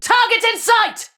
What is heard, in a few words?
Player Spotted Ranged, Battle Barks; Tau; Tau Dax